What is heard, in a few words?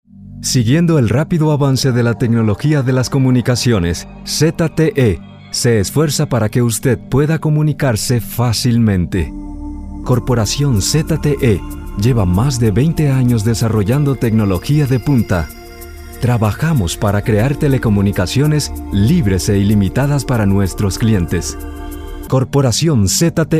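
Spanish Latin American General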